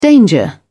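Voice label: "danger", British English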